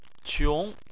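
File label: "qiong", Chinese, tone 2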